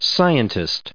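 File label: "scientist", English, us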